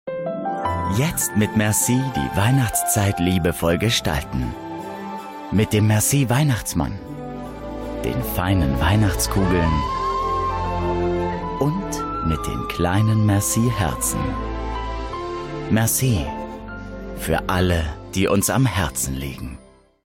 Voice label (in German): Werbung Weihnachten; merci